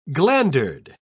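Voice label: "glandered", English, British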